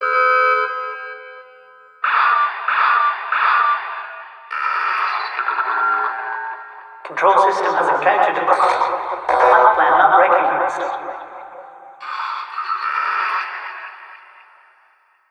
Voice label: Announcement